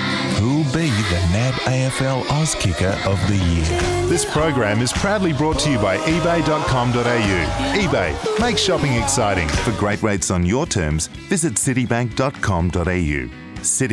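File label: English Australian, male, commercial; voice demos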